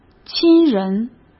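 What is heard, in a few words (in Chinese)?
"亲人", Mandarin Chinese; hsk; chinese-flashcards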